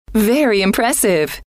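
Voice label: very Impressive, female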